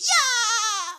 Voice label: "ya" baby